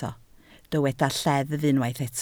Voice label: "lleddf", Welsh